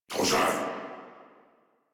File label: Surprised, Battle Barks